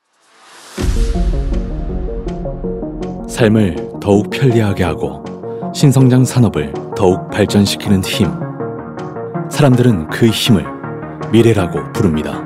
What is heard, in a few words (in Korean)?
기업 홍보 세련된; 하나기술